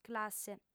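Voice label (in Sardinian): "classe", female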